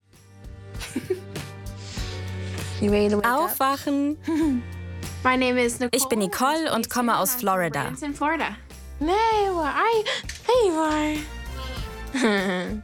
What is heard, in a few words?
Voice Over